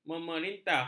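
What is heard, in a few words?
"memerintah", Malay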